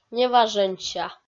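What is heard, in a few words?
"неважӧнся", Komi, female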